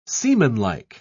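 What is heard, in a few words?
"seamanlike", British English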